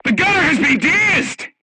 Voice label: voice message gunlayer contused, crew tanks portuguese